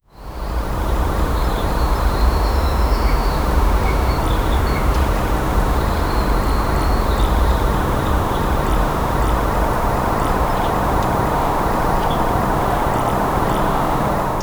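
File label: the sound of an english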